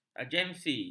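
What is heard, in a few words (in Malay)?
"agensi", Malay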